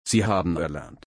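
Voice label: "erlernen", German, slow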